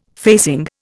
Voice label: "facing", Indian